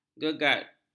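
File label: "gegat", Malay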